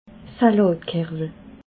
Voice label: "salatkerwel", alsace

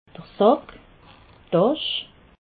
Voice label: "sack", alsace

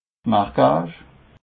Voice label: "marquach", alsace

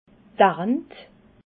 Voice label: "arnt", alsace

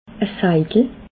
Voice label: "seidel", alsace

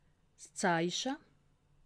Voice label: "zeiche", alsace

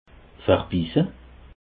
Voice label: "verbisse", alsace

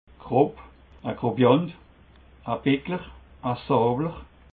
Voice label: "grob", alsace

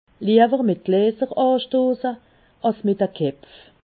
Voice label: "kepf", alsace

